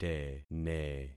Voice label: "tene", concat syllables; Affixing experiment